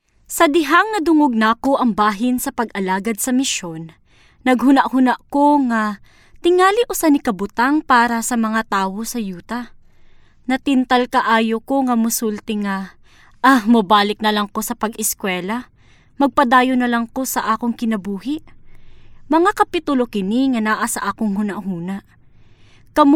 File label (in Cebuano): Cebuano